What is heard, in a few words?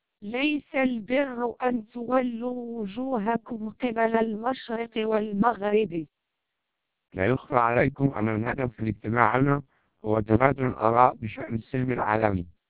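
Arabic speech, TWELP 480 bps vocoder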